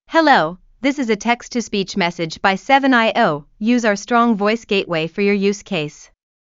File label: voice beispiel en